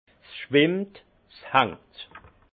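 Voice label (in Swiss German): "schwimmt", Alsace Haut Rhin; vin; en suspension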